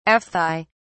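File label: "aphthae", British English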